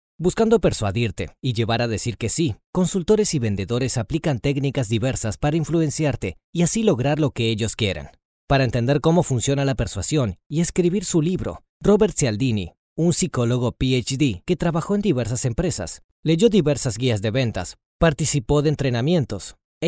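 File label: Latin American Spanish, Narrative; GoLocalise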